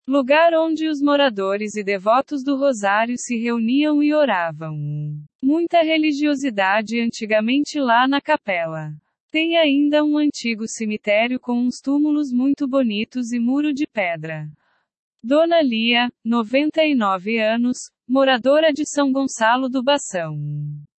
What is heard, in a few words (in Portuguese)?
capela rosario narrativa